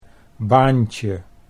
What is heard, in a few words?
"baantje", Dutch